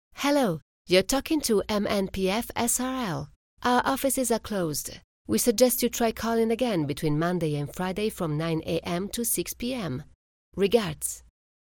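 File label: Italian female voice over, English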